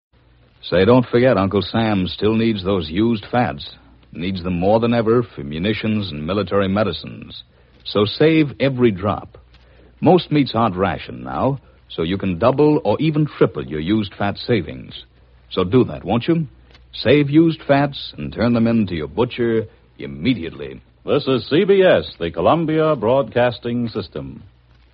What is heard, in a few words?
radio news used fats reminder; 1944; cbs